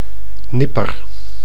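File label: "nipper", Dutch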